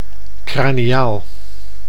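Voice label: "craniaal", Dutch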